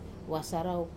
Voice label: "wasarau" paper, Wamesa

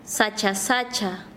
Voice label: Chanka, quechua; bosque

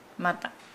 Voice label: Nukuoro; raw

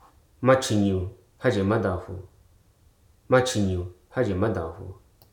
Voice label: "idiot", Hruso

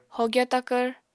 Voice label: "hogya takvr", Apatani

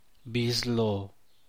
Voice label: "bis loo", San Mateo Macuilxochitl Zapotec; eye; ojo

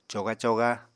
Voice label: "coga-coga", Apatani

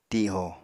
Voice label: "tiho", apatani

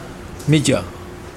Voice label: eye, Mokilese

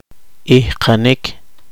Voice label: poqomchi; cargar

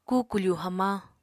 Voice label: "kukufu hamañ", Apatani

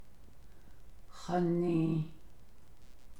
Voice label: navajo; denotes contrariness to fact

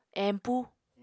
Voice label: "empu", Apatani

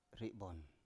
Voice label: "ri7bon", Biak

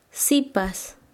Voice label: Chanka, quechua; senorita

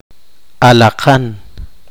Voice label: poqomchi; pabellon nacional

